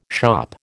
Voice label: "shop", American